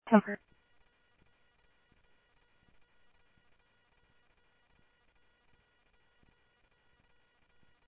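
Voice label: Sheriff West County, scanner audio trunk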